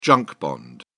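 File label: "junk bond", British English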